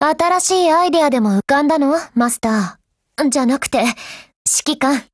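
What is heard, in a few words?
Japanese, Voice; GF Alarm; Px4Storm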